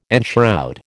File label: "enshroud", American